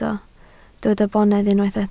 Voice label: "bonedd", Welsh